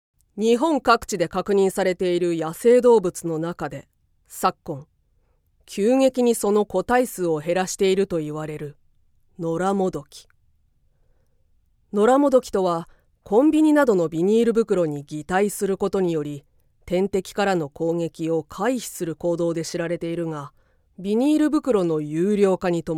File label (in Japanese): 動物ドキュメンタリー・重厚